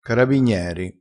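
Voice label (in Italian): "carabigneri", dialettale crespinese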